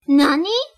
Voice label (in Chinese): 什么？（日文）